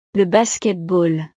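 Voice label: "le basketball", French; deportes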